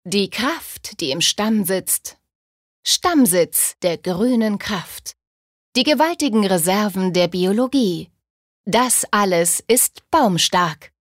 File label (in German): Werbung, Kraftvoll